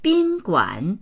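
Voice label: "bin guan", Mandarin; 宾馆; ホテル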